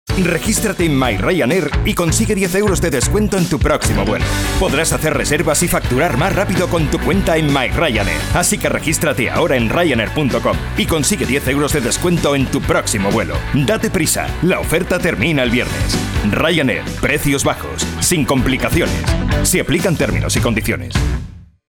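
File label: European Spanish Commercial; GoLocalise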